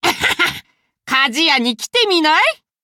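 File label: level up, Japanese; cookie run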